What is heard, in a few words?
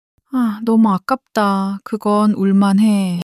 sadness